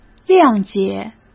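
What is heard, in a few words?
"谅解", Mandarin Chinese; hsk; chinese-flashcards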